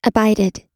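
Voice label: "abided", American English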